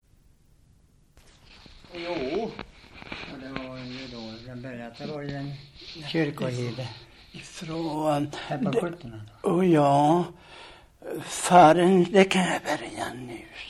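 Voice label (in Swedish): Västra Ryd Östergötland, dialekter, svenska sagor; Folkminnen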